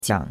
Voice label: "jiang3", chinois; Cours-de-Chinois

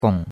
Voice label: "gong3", chinois; Cours de Chinois

